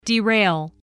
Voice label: "derail", English

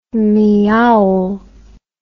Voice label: "miaul", English

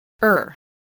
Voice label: "err", voice, english

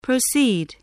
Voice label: "proceed", voice, spelling

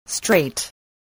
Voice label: "straight", spelling

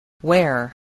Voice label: "where", spelling

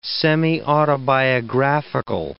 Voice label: "semiautobiographical", English

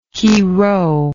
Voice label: "chi rho", English

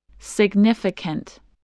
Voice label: "significant", spelling

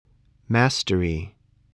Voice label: "mastery", spelling voice

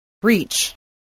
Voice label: "reach", english

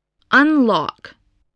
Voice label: "unlock", spelling

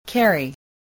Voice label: "carry", spelling

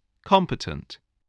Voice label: "competent", english

